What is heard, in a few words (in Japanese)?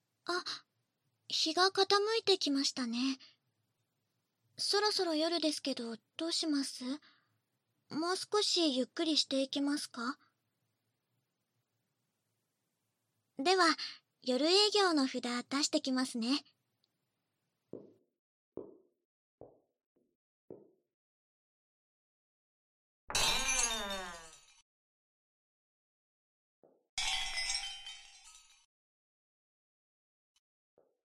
お酒を飲みながらまったり盛り上がる（バイノーラルマイク 効果音あり大きめ）; 効果音大差分